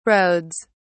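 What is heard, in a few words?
"roads", British English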